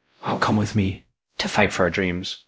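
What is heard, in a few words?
Voice Acting Spanish, male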